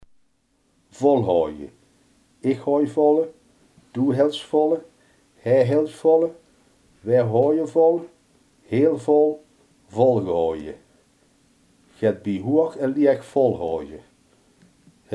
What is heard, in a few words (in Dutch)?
"volhouden", limburgse